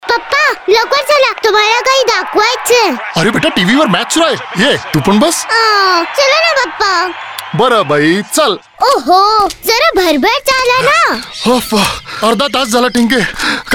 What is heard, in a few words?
promo Marathi